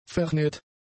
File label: "vernäht", German; adjectives